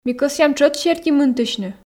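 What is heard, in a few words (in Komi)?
ми кӧсъям тшӧт серти мынтысьны, Komi, female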